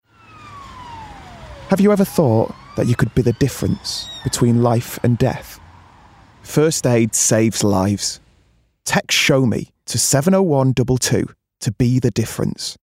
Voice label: COMMERCIAL First Aid

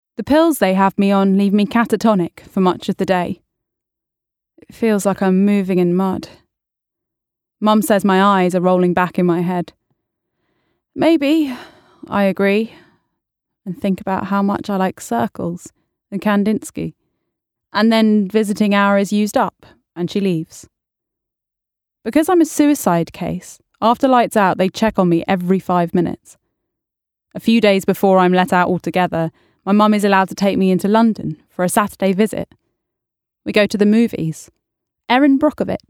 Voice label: AUDIOBOOK; Your Voice In My Head

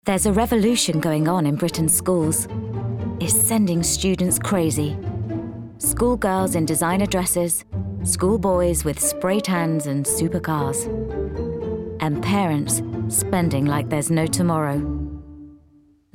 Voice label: Narrative

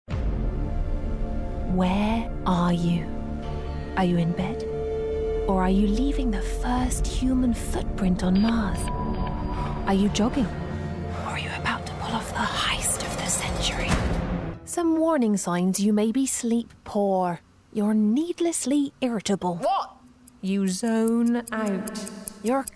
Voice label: Commercial

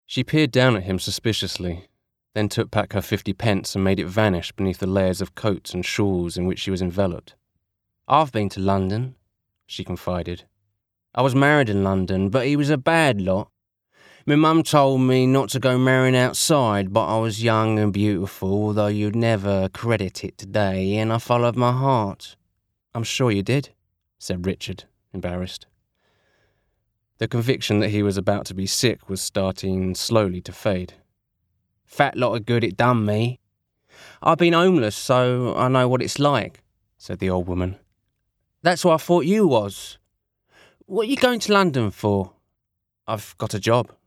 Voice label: AUDIOBOOK; Neverwhere

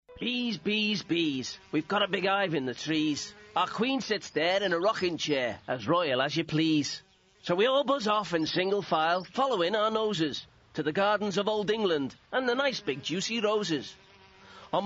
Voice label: Poem; Bees

